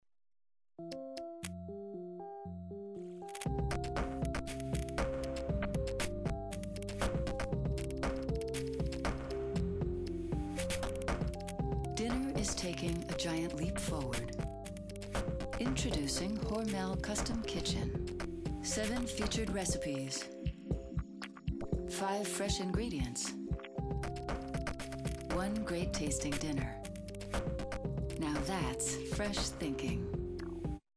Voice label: celebrity commercial